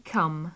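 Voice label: "come", British English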